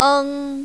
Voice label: "eng1", mandarin, female